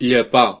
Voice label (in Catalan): "lick", voices ca words; gcompris